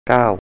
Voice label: "kâ:w" 'nine', Thai